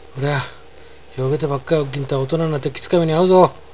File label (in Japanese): こりゃ、ひょーげてばかいおっぎんた、大人になってきつか目にあうぞ。, 有田弁; こら、ふざけてばかりしていたら、大人になってきついめにあうぞ。; ひょーげる; ふざける，ひょうきんなことをする